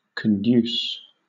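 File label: "conduce", British English; pronunciations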